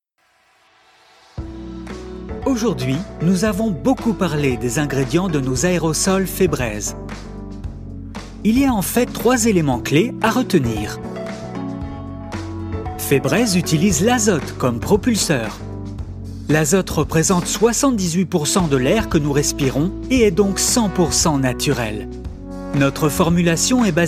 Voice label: Video explicative, Explainer - Friendly Tone, French, Male; Corporate; Febreze